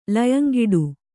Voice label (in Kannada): "ಲಯಂಗಿಡು", kannada